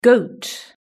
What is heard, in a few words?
"goat", british english